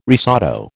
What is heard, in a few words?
"risotto", American